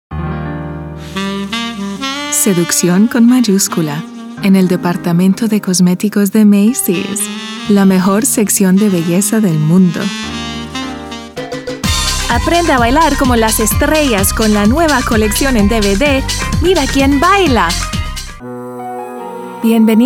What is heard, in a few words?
spanish